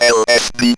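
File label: ROBVOX, robot voice; E-Lab; X Static Goldmine 1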